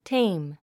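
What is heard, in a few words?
"tame", English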